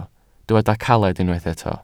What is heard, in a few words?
"caled", Welsh